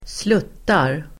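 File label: "sluttar", Swedish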